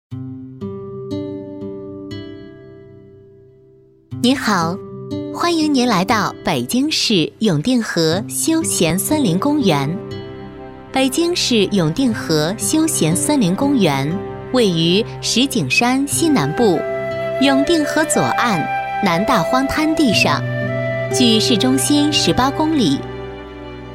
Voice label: 女 讲解（亲和甜美）; 北京市永定河景区讲解

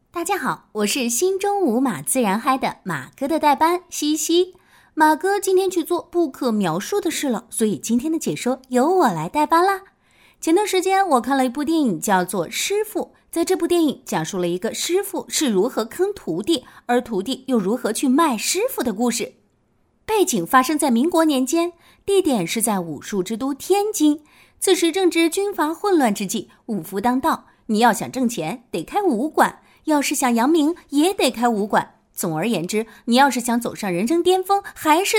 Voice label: 女 电影类自媒体解说（俏皮）

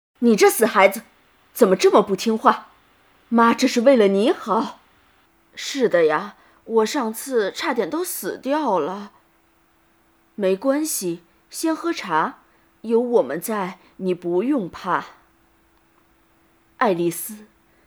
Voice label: 女 中年妇女, 动画游戏角色类配音

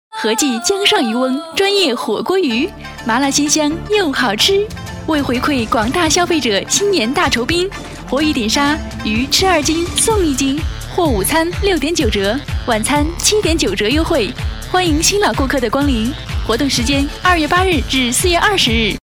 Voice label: 女 火锅鱼（年轻甜美）

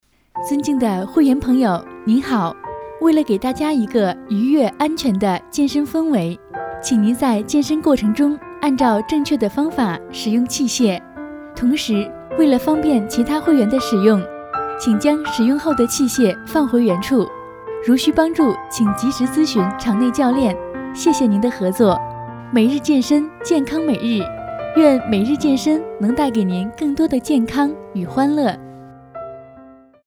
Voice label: 女 健身提示 亲和

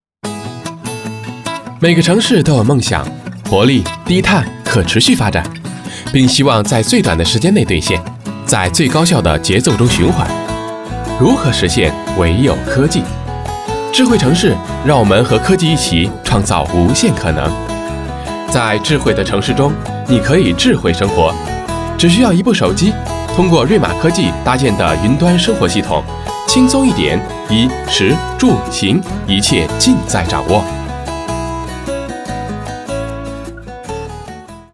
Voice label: 男 智慧城市（轻松时尚）

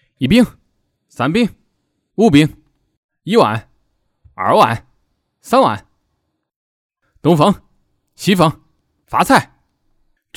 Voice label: 男 棋牌游戏

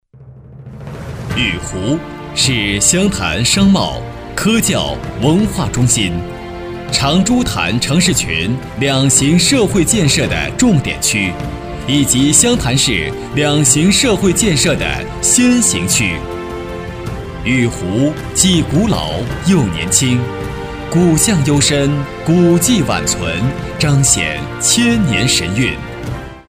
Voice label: 男 湘潭（大气稳重）; 实惠年轻

